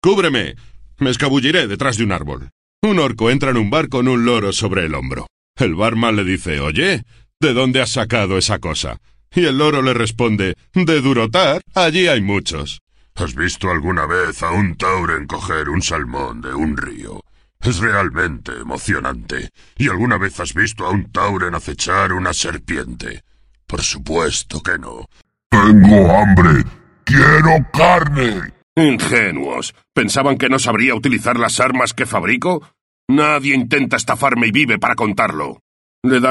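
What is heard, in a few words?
spanish video games, Spain; voice actor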